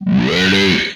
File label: "Ready", Speech; retro arcade